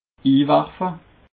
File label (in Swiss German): "inwerfe", alsace